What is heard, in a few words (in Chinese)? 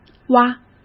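"哇", Mandarin Chinese; hsk; chinese-flashcards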